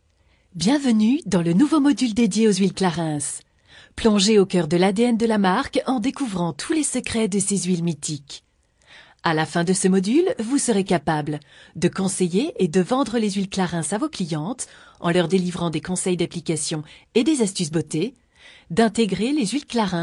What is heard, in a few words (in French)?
E-learning; Huiles Clarins